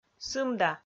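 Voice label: "сымда", Komi, female; audio dict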